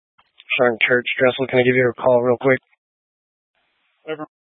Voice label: Police Dispatch, Scanner audio recording